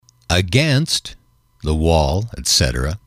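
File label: "against", male, English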